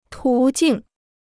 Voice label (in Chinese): "途径", Chinese